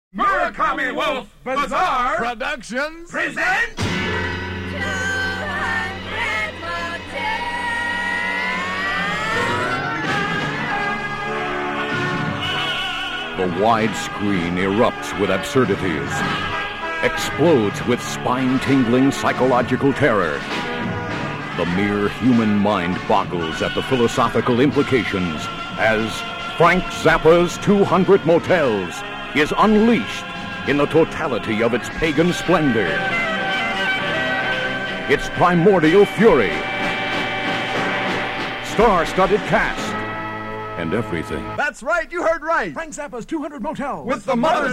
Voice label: Promotional Radio Spots; The Wide Screen Erupts